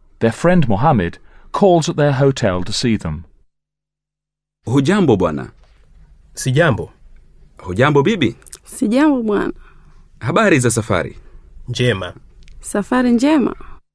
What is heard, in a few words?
How Are You Dialog, Swahili; Teach yourself Swahili audio